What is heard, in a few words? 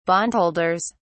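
"bondholders", British English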